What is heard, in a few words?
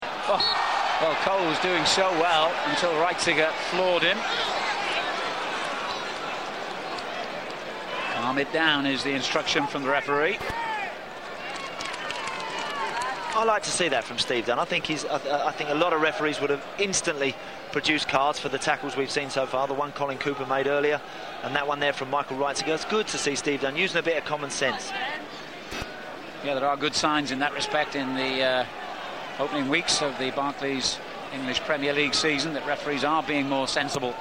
Commentator Audio; Arsenal v Middlesbrough